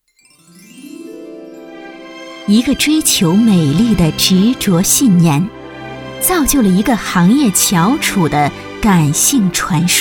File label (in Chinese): 女国 专题 企业 成熟; 华特美业